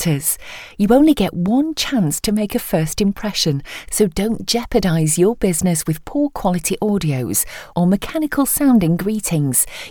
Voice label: female voice